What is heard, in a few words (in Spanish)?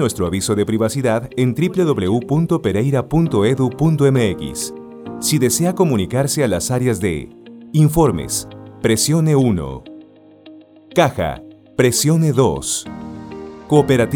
AUDIOS DE CONMUTADOR MENSAJE BIENVENIDA ESCUELA